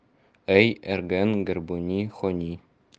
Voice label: Как назыв это стбойбище, нанайский

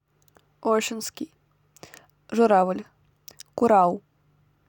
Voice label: орочонский журавль

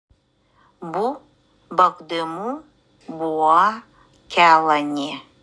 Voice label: УДЭГЕЙСКИЙ Мы живем рядом с тайгой; Тайга; Бу багдиму буа кялани.

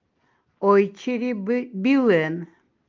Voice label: октябрь эвенский

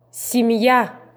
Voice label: произношение слова семья

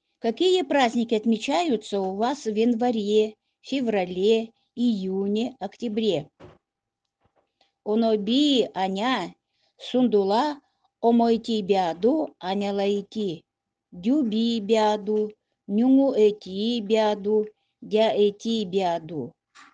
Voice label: январь, удэгейский

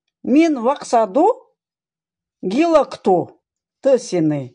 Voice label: УЛЬЧСКИЙ; Нос

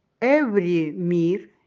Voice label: февраль эвенский

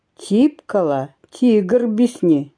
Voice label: ЭВЕНСКИЙ В Тайге живет тигр

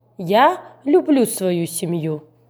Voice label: Аудиофайл предложения русский

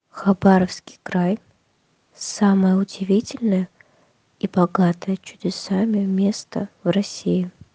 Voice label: Край, русский язык

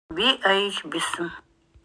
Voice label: ЭВЕНСКИЙ У меня хорошо идут дела; Би аич бисэм.

